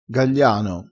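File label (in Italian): "gagliano", dialetto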